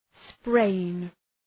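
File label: "sprain", English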